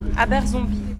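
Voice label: "Aberzombie", French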